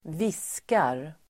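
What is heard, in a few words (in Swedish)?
"viskar", Swedish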